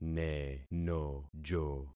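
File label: "nenojo", concatenated syllables; Affixing experiment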